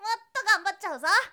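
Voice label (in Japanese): "mottoganbattyauzo", Japanese boy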